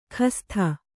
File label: "ಖಸ್ಥ", Kannada